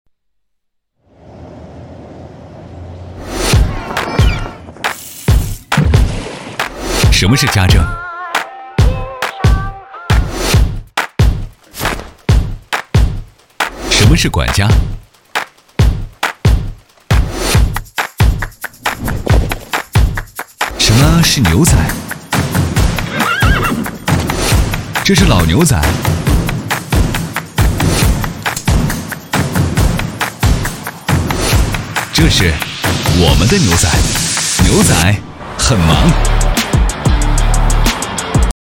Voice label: 男 独白; 什么是家政

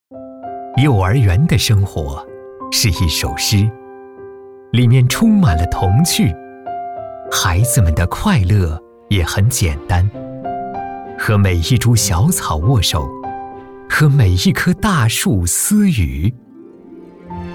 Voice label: 男 幼儿园类

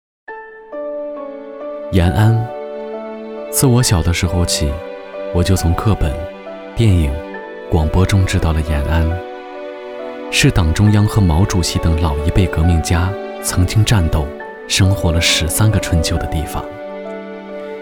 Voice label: 男 旁白讲述; 延安分行

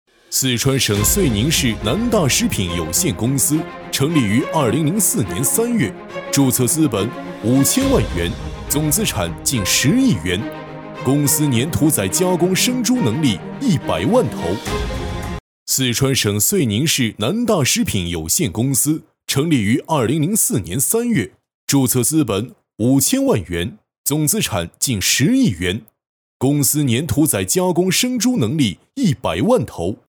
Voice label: 男 宣传片; 四川省遂宁市

